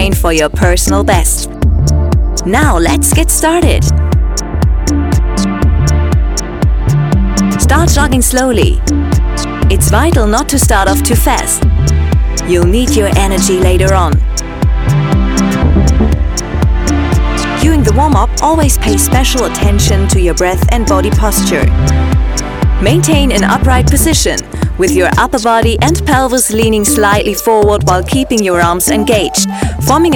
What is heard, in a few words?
story run english; Podcast Moderatorin & professionelle Sprecherin; Runtastic Story Run "Pushing The Limit" (English)